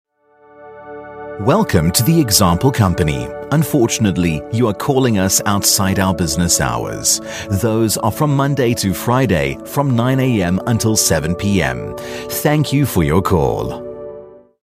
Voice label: international english telephone